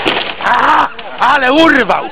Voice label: OOFF, speechbanks